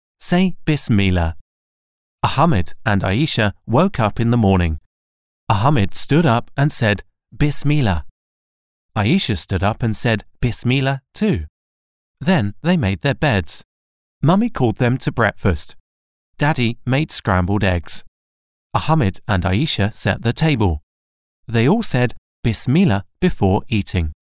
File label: audiobook childrens friendly warm neutral